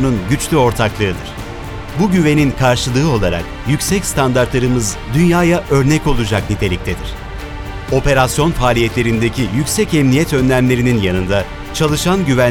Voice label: turkish; opet

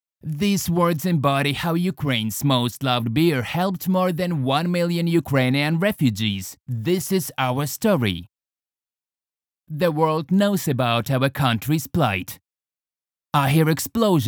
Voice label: eng; chernigivske

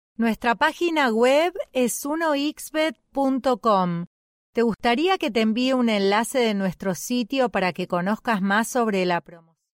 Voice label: ivr; 1xbet

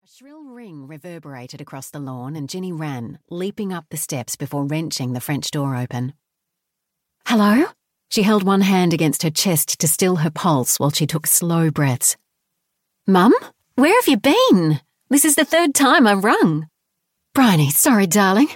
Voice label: audiobook; a stranger in featherwood falls by heather reyburn; audible

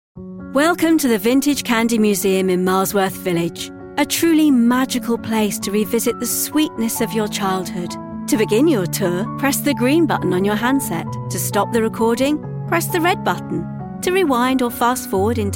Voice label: corporate

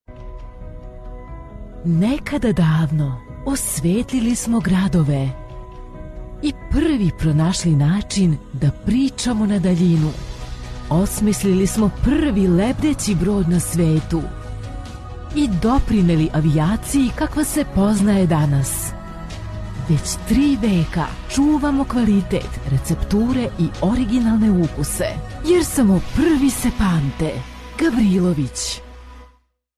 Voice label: commercial; gavrilovic

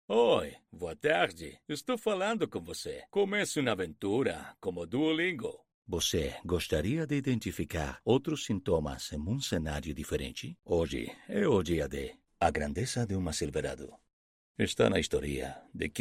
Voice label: portugues

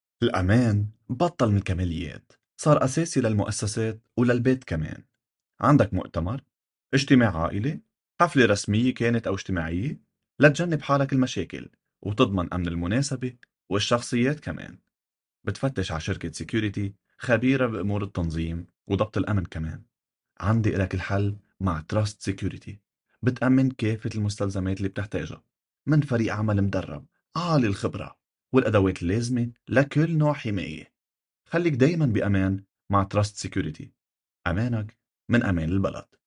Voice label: lebanese ad; security

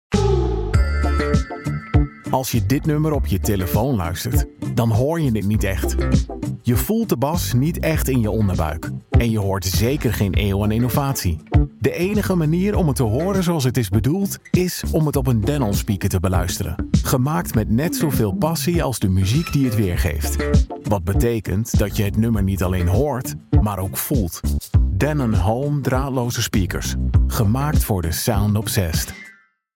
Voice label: radio commercial; denon

